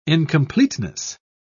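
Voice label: "incompleteness", British English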